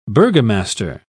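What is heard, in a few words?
"burgomaster", British English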